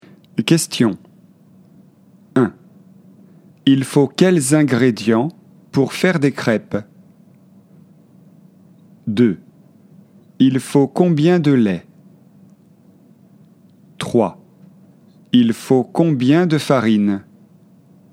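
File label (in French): crepes dialogue fle questions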